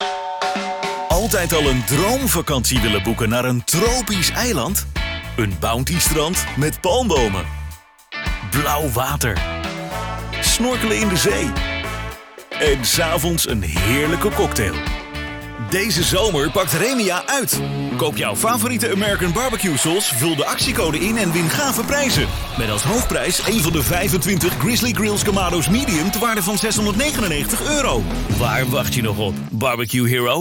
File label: dutch voices